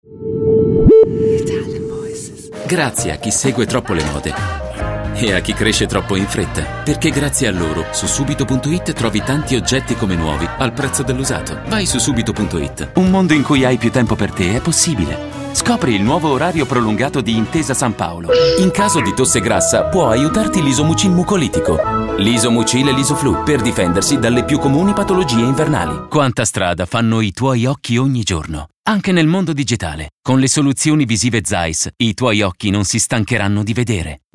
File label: ITALIAN VOICES Commercial Friendly, male voices